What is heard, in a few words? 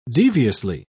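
"deviously", British English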